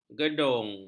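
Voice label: "gedung", Malay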